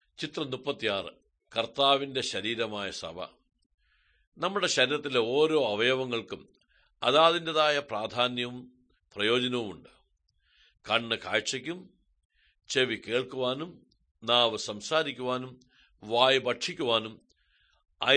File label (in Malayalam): good news malayalam, drama